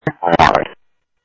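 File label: police radio dispatch, Scottsdale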